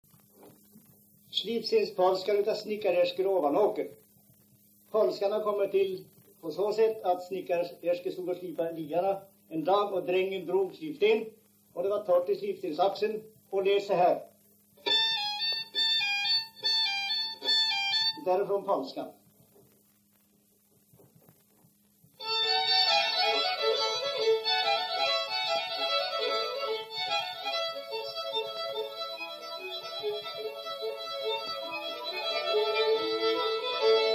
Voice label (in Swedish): dialekter; Folkminnen; Svenska sagor